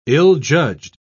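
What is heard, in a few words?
"ill-judged", English, British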